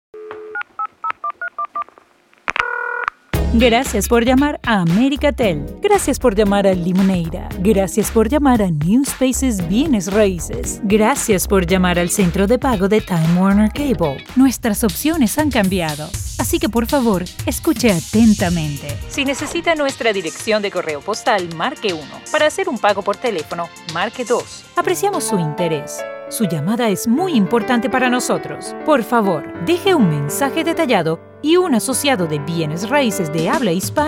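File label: Spanish Latin American IVR; GoLocalise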